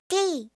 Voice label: "t", alphabet

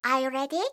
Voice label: "are you ready", kakegoe

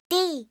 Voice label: "d", alphabet

